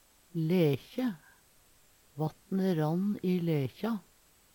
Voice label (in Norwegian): "lekje", Numedalsmål; Substantiv hokjønn; Handverk (metall, tre, lær); Veggli